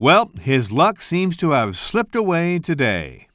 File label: commentator